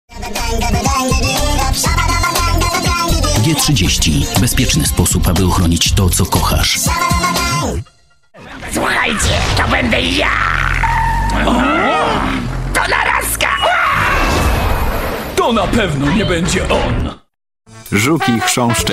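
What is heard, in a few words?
Polish, Characters; GoLocalise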